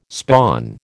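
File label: "spawn", American English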